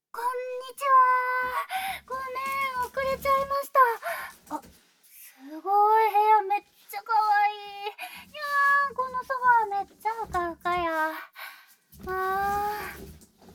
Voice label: キス&囁き&耳舐め; nonSE; ホテル; 触り合い&フェラ; 液体音無し

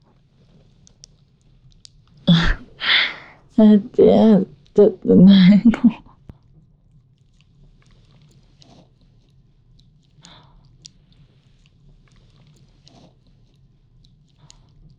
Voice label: 首を舐めてもらう, ASMR

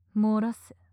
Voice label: 漏らせ・強く・近・右

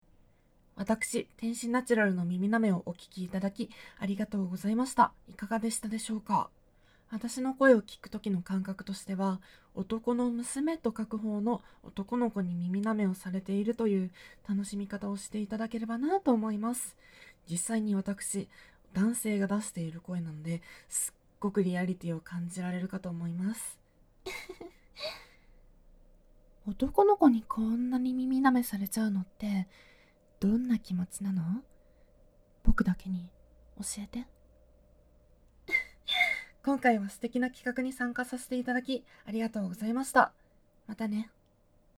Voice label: フリートーク; てんしナチュラル; 耳舐め&吐息ぐっぽり10時間♪

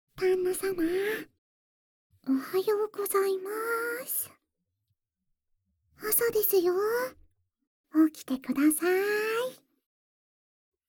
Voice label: めざましボイス; ロリロリめざましボイス; 日文版; 萝莉W舔耳按摩~比比谁的小○更好的甜蜜后宫～【舔耳萝莉ASMR】

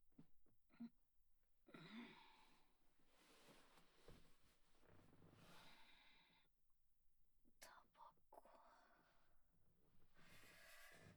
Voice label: フリートーク, ASMR; PHASE/TRANS -狂愛相変; KU100

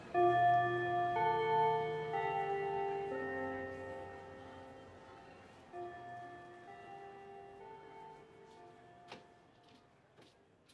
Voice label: 本編（SEあり）, ASMR; 生徒会長; 【母娘親子丼】学園長の母と生徒会長の娘を催眠アプリで強制オホ声屈辱絶頂【催眠・洗脳・認識改変・身体操作】

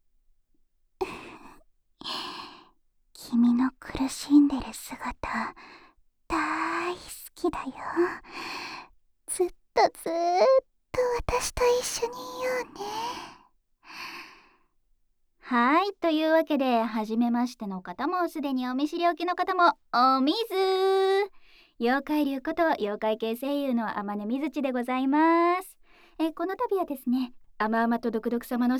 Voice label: フリートーク; SE小さめ

